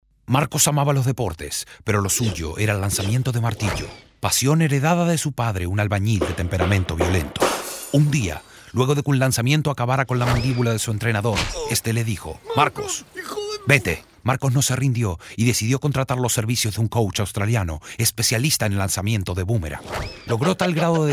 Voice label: CABLE TV, radio; TCC